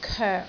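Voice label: "koek6", Cantonese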